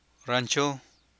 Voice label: "Ranchó", Copala Triqui

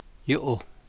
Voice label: "yo'o̱j", Copala Triqui

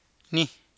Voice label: "nij", copala triqui

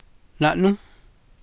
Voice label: "na'núj", copala triqui

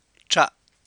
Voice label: "chra'", Copala Triqui

